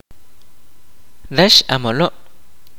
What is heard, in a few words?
"horsefly", kaqchikel